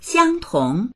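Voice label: "xiang1tong2", Mandarin Chinese; 相同; 同じである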